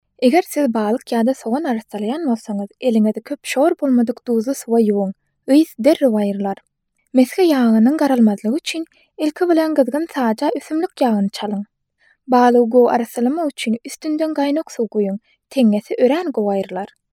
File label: Turkmen Female